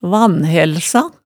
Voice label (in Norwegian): "vanhælsa", hemsedalsmaalet tale